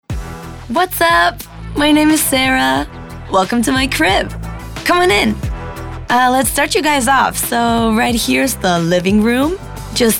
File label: Voice Over English, Overlay, English US; CRIBS